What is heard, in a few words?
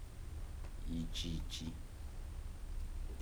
"small", Kibembe